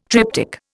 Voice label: "triptyque", Indian